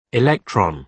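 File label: "electron", British English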